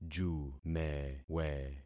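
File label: "jumewe", concatenated syllables; Affixing experiment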